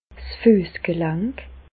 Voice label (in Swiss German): "fuessgelank", Bas Rhin; medecine; cheville